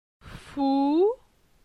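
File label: "Fou", French; phonetique; la syllabe ou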